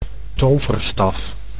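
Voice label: "toverstaf", Dutch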